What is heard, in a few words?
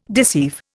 "deceive", Indian